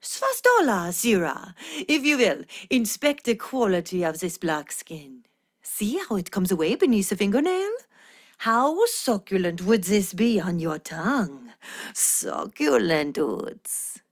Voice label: Ost Fem en; Cetus